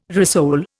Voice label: "rissole", Indian